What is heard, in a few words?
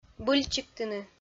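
"быльчиктыны", Komi, female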